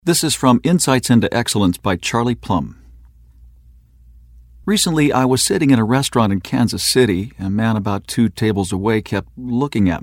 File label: Thoughtful Dialogue